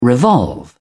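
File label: "revolve", British English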